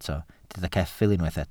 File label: "ceffyl", Welsh